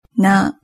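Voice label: "na", Japanese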